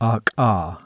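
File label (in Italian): Eiettive Velare, consonanti non polmonari; IPA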